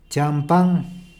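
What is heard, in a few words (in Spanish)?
"champan", Spanish, Peru, Cuzco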